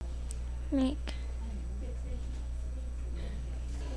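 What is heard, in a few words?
"lick", spoken word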